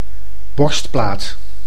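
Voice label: "borstplaat", Dutch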